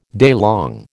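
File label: "daylong", American English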